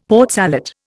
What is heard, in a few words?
"Port Salut", Indian; Dictionary